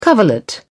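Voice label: "coverlet", British English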